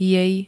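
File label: "jej", Slovak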